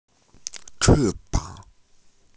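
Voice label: "chi4bang3", Mandarin Chinese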